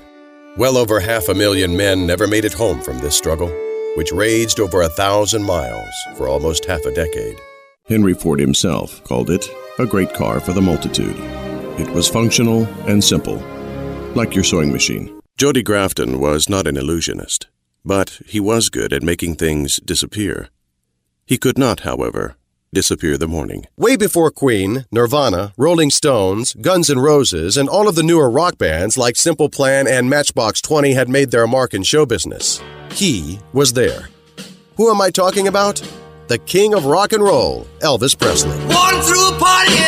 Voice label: male English USA neutral North American accent audiobook